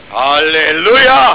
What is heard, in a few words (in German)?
zornig halleluja